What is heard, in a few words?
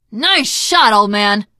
nice shot, teen girl voice; source engine; left 4 dead 2; survivor